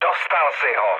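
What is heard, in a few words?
player killed enemy, Czech, mood low; speech; War Thunder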